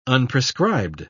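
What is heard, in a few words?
"unprescribed", British English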